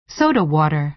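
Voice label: "soda water", American English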